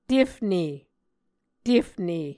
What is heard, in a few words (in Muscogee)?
"tefne", Muscogee